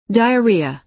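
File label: "diarrhea", American English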